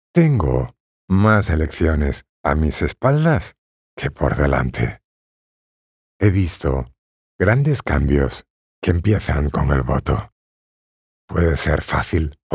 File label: spanish political ads